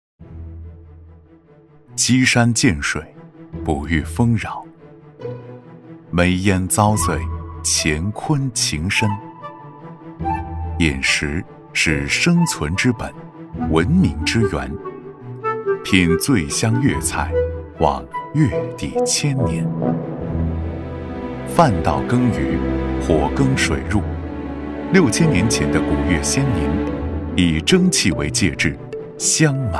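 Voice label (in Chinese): 男【舌尖】; 至味绍兴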